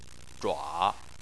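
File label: "zhua" Chinese, third tone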